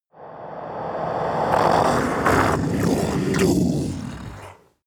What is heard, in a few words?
demon dark voice reverse i am your doom